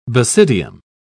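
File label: "basidium", British English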